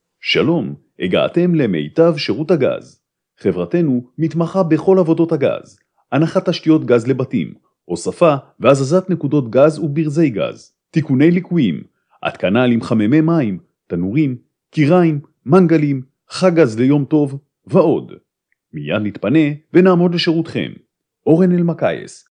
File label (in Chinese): 希伯来语男 外语 小语种